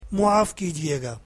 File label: urdu Sorry